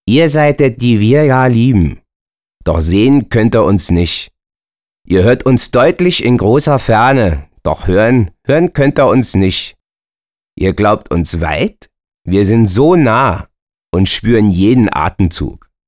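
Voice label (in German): berliner, Dialekt, Sprecher, Männer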